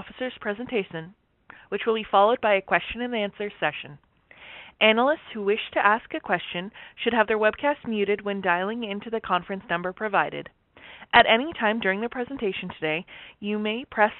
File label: earnings call recording